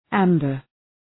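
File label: "amber", English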